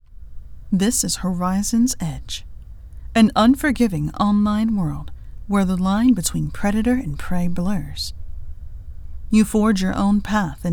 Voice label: Video Game Commercial